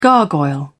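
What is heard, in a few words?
"gargoyle", British English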